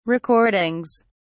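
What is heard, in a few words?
"recordings", British English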